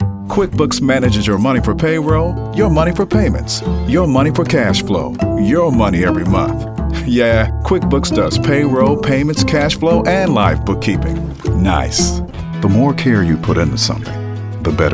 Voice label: Commercial